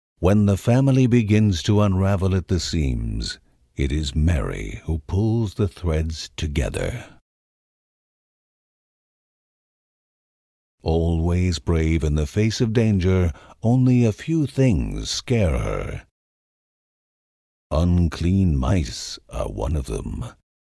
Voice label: mary and mice narration; children of morta; Procedural Events